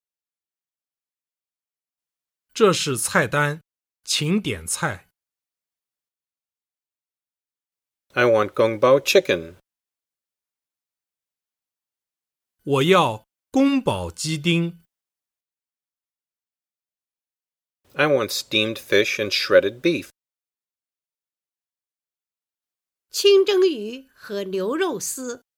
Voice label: Dialog; a Restaurant